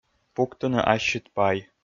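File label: "пуктыны ассьыд пай", Komi, female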